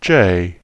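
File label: "J", British English; tuxpaint-stamps; symbols; alphabets; uppercase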